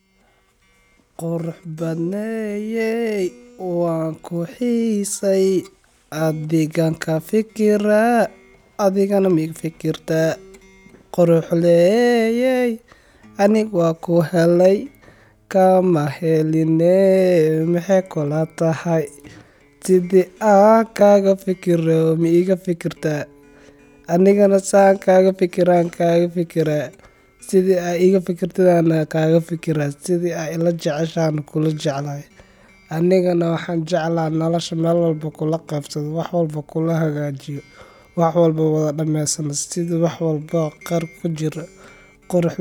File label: poem with guitar